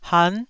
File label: "han9", taiwanese